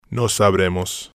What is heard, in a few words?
"no sabremos", spanish